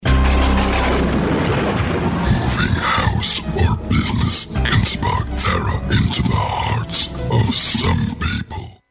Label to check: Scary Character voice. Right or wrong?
Right